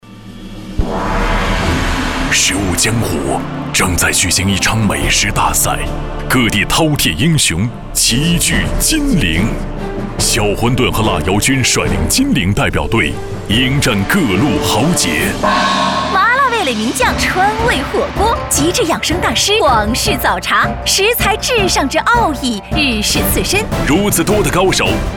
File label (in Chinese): 男 宣传片; 全城食物恋